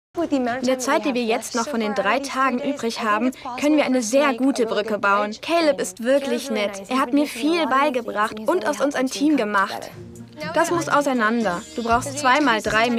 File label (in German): Voice-Over